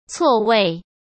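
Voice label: "错位", Mandarin Chinese; chinese flashcards; hsk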